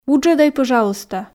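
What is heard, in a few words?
"вуджӧдӧй пӧжалуйста", Komi, female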